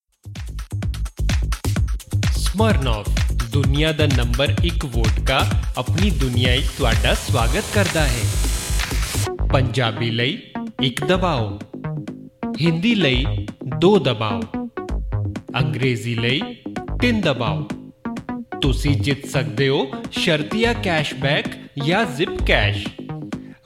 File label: punjabi